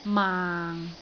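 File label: "maang6", Cantonese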